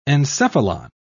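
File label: "encephalon", English, British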